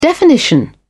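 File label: "definition", British English, dictionary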